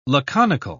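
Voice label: "laconical", British English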